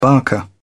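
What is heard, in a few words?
"barker", British English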